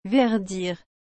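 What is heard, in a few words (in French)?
"verdir", French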